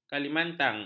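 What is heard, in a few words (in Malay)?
"kalimantang", Malay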